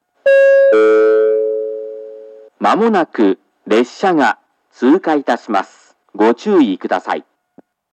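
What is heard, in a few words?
track 1 approach advance notice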